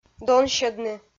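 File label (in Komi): "донсьӧдны", Komi, female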